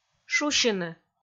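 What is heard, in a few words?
"шусьыны", Komi, female; kpv audio dict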